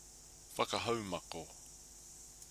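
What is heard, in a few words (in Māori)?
"whakahaumako", Māori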